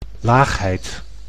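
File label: "laagheid", Dutch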